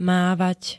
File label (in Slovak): "mávať", Slovenské